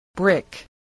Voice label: "brick", spelling